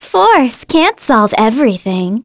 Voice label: vox, en; kingsraid; voices heroes